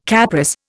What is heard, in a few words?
"Capris", Indian